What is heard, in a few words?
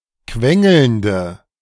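"quengelnde", German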